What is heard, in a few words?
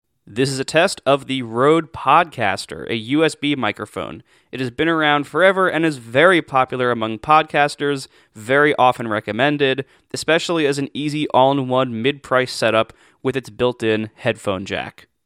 Rode Podcaster, microphones